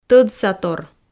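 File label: "тӧдсатор", Komi, female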